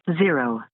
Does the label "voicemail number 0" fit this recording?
Yes